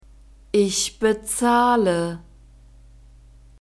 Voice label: "ich bezahle", German